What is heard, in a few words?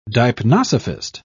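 "deipnosophist", British English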